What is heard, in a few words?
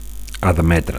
"admetre", Catalan; to admit